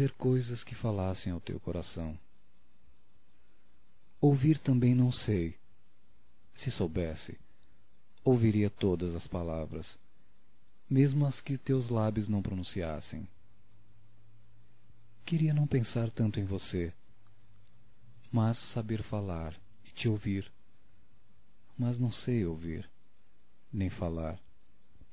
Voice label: Sentimento Masculino; Românticas

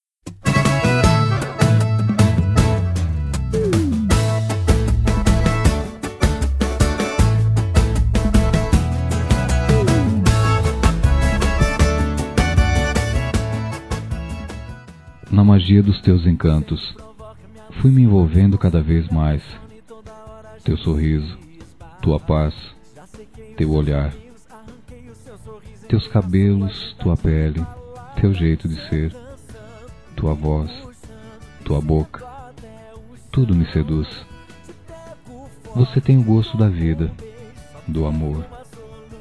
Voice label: ROMANTICA SENSUAL, Telemensagens; Telemensagens 2017

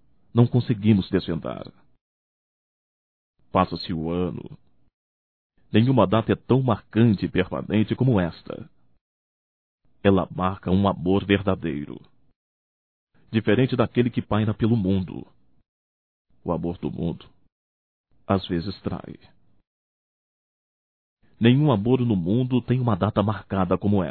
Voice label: Neutro Masculino, Natal; Telemensagens 2017; Natal & Ano Novo

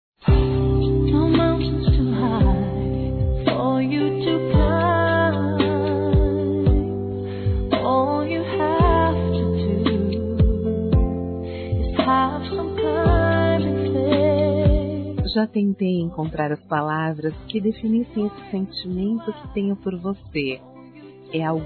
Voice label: ROMANCE F, Telemensagens Amor; VOCÊ É ESPECIAL

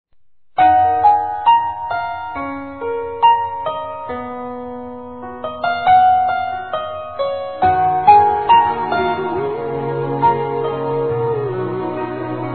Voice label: ANIV. DE MAE ADOTIVA (M); Telemensagens 2017; Aniversários; ANIVERSARIO ADOTIVOS

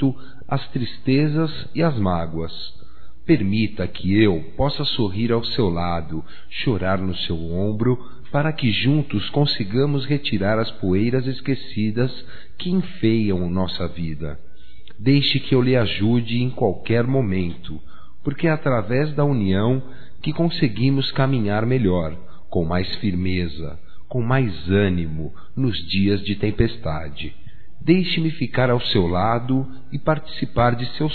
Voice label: DEIXA EU AJUDAR (M); Amizades; Telemensagens 2017

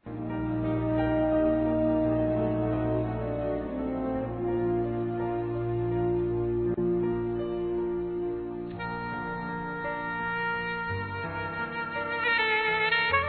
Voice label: GERA RELIGIOSAL, Masc; Dia das Mães; Telemensagens 2017

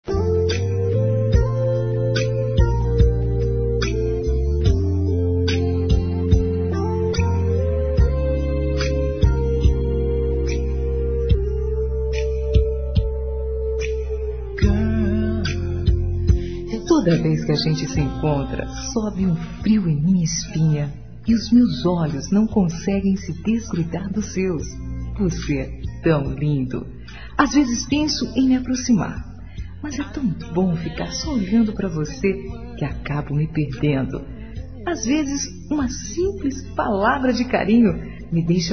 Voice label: ANIV. PAQUERA F; Aniversários; ANIVERSARIO romantico; fem

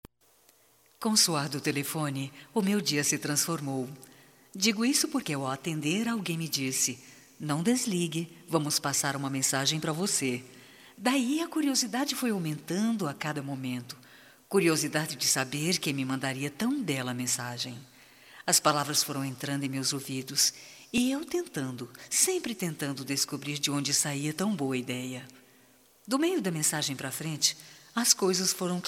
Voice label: AGRAD. MSG RECEBIDA - F, Só Voz; fem; Telemensagens 2017

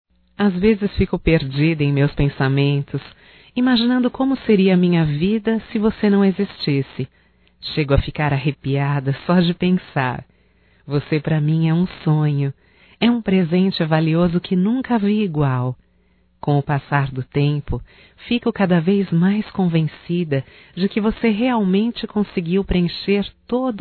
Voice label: ROMANTICA(F), Românticas, fem

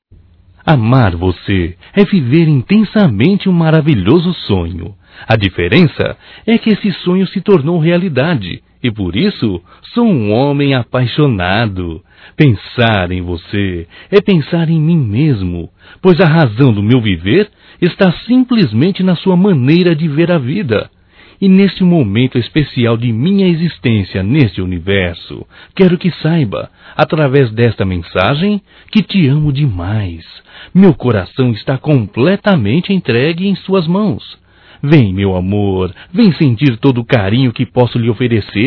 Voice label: Romântico Masculino; Românticas

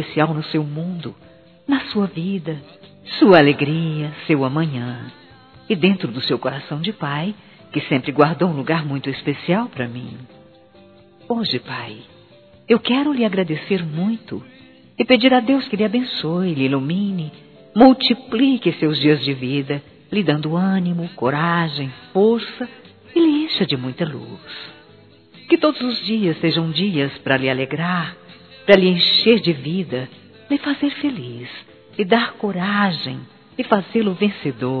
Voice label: NEUTRO Feminino, Telemensagens, Dia dos Pais; Telemensagens 2017

